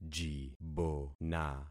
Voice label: "jibona", concat syllables; Affixing experiment